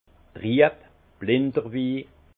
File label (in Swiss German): "trieb", alsace; vin; trouble du vin